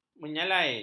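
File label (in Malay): "menyalai", Malay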